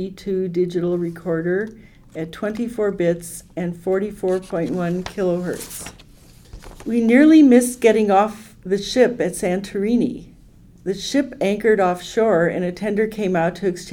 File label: AT825 stereo female, microphones